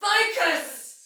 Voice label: See Ally Die Soldier Female, Battle Barks; Dialog; Sinecure

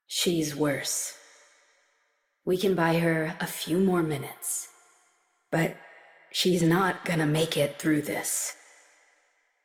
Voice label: Operator Voice; Warframe; Jade Shadows Quest

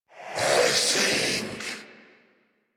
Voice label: Surprised; Dialog; en; Warframe; Zariman; Zariman Wraith

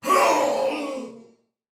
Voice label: Death Soldier Male, Battle; Dialog; Barks; Sinecure

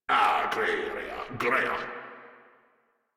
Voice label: Patrol, Grineer Male; Warframe

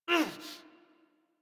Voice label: Generic Land Pain Operator Voice en; Operator Mission

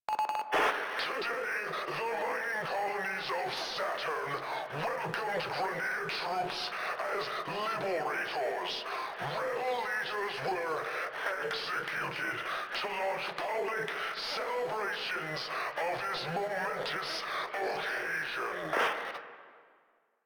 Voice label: Announcements, Radio Interceptions

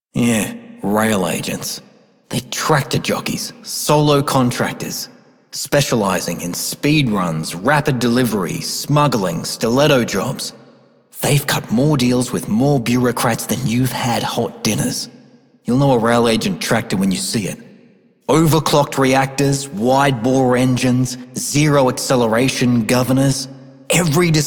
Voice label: male worker; en; Dialog; Warframe; Solaris Venus

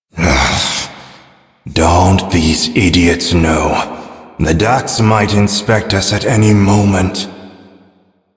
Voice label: Sweeper; Warframe; Duviri; Duviri Ambient

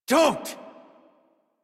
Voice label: Adult, en, Dialog

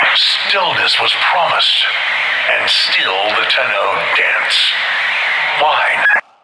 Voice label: Radio Taunts; Second Dream; Hunhow

